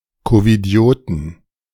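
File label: "Covidioten", German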